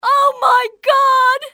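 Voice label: my god, english, female; XBOX; civ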